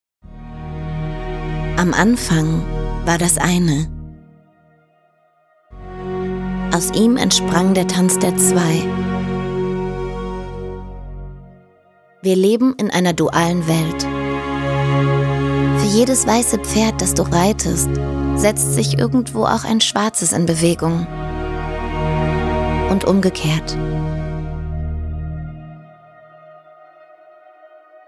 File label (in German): Sprecherin, Imagefilm, Off; Demo; Tanz Der Zwei